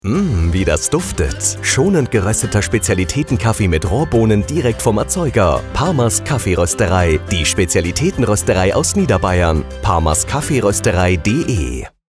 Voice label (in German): Funkspot; Pamers Kaffeeroesterei